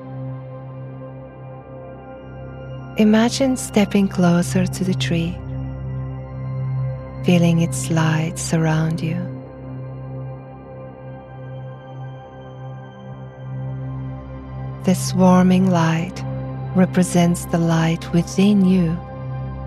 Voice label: christmas guided meditation